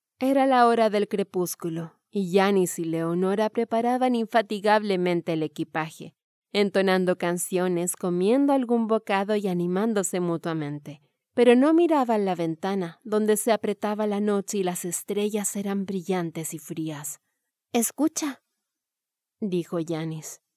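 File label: Spanish Latin America female narrative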